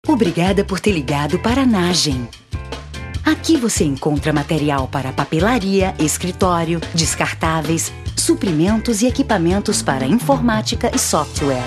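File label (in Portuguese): Português - Brasileiro, ESPERA TELEFÔNICA, Voz Padrão - Grave, Feminino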